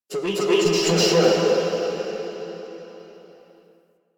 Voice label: Announce; Part Two Veso; Warframe; The New War; Red Nebula